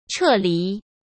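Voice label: "撤离", Mandarin Chinese; chinese-flashcards; hsk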